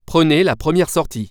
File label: first, french; waze